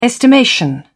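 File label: "estimation", British English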